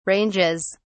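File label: "ranges", British English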